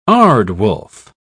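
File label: "aardwolf", British English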